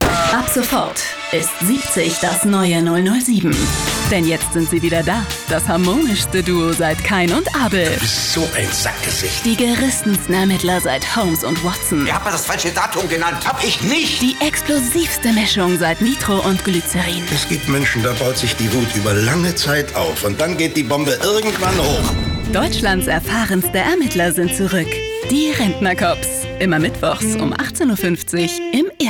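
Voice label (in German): Werbung, Cool; Fremdtake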